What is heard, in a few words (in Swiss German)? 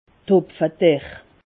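"hopfederr", Alsatian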